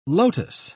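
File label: "lotos", British English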